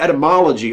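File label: "etymology", US English; pronunciations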